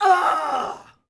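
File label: Axeman Die Vox; Civ4 warlords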